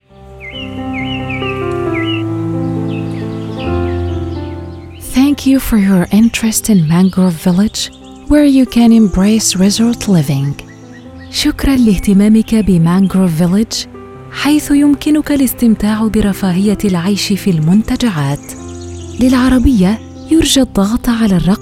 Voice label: Ar En IVR; mangrove Village